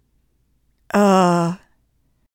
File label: alpha sounds u sh